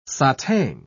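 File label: "satang", British English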